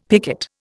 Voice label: "picket", Indian; Dictionary

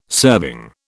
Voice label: "serving", British

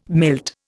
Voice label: "milt", Indian

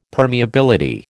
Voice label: "permeability", American

